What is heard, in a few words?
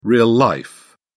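"real life", English, British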